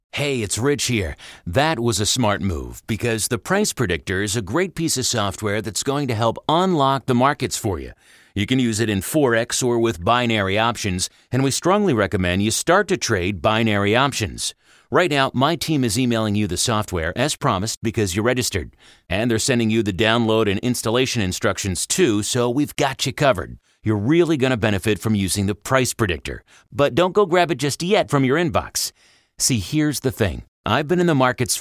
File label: Conversation Investing